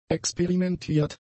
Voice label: "experimentiert", German; adjectives